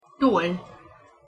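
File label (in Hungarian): "toll", Hungarian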